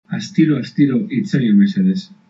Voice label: slowly, basque